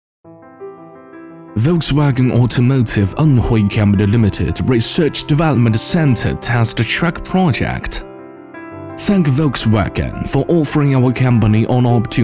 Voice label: 英男 专题广宣; 大众系列

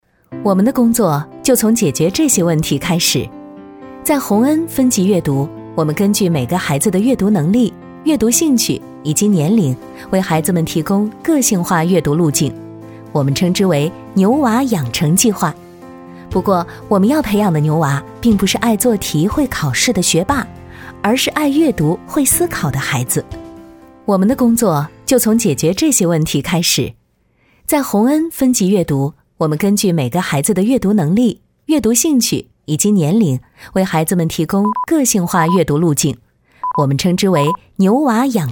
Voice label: 女 分级阅读; 洪恩

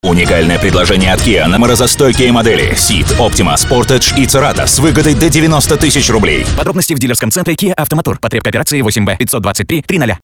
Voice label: 电视广告

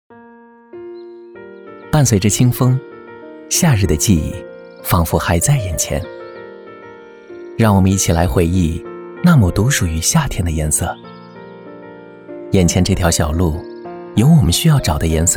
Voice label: 男 讲述感; 夏天

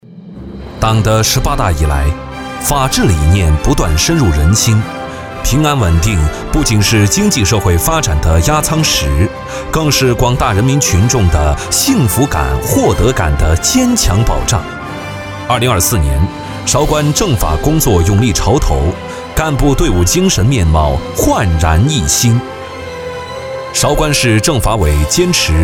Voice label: 男 法制专题

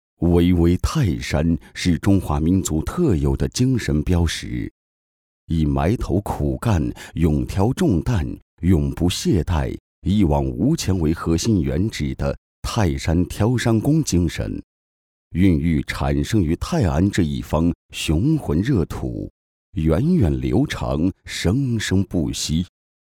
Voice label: 男 国庆泰山挑山工精神

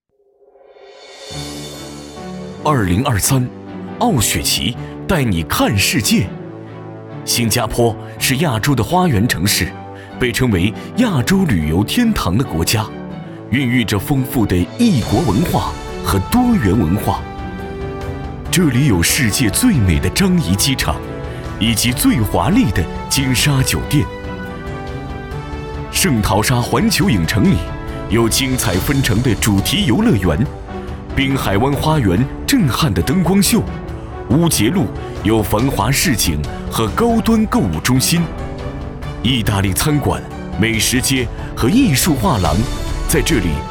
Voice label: 男 宣传片 带你看世界 磁性

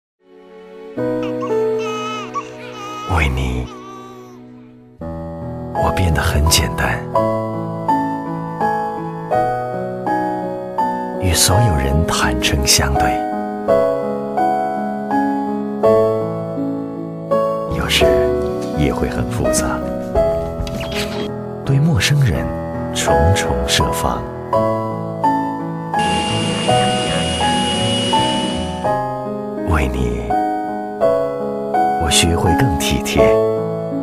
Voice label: 男 形象影片; 国语男声配音

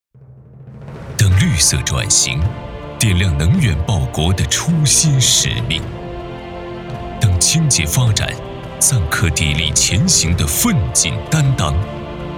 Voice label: 男 专题类; 国家电投集团江西分公司

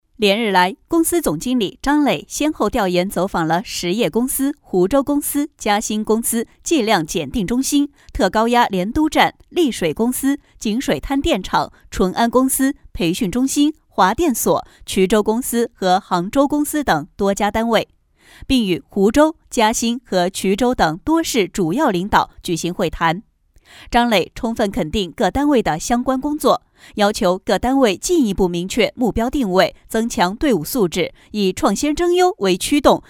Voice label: 新闻 大气稳重, 国语女声配音

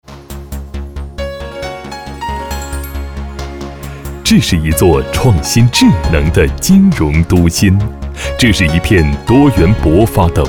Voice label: 男 地方宣传; 天山区

